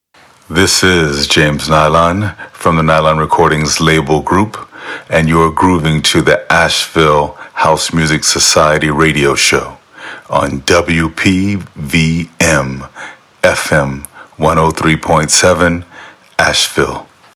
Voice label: Radio Drop